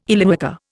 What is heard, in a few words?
"Illywhacker", Indian